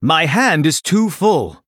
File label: Male Blood Elf ERROR HAND FULL